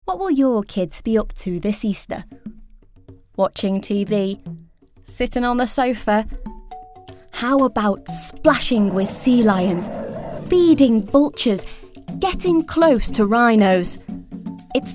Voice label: female english northern accent safari park commercial; knowlesly